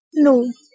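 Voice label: "nu", Proto-Indo-European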